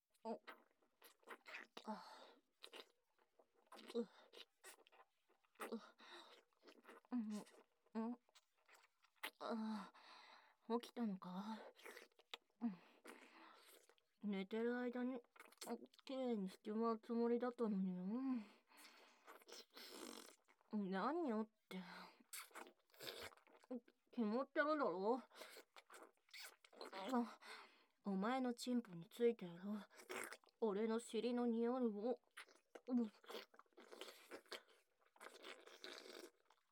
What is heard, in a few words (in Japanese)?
朝のお掃除, ASMR